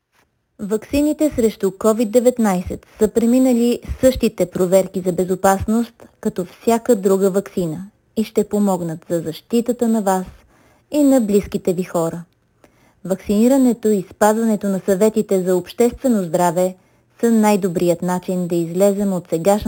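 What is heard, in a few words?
Vaccine safety Bulgarian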